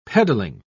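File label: "peddling", English, British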